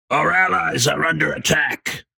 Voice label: vocal our allies are under attack male 30s